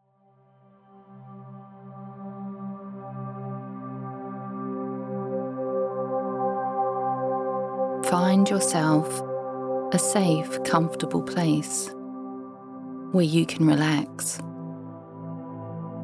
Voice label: Deep Relaxation